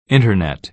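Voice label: "internet", American English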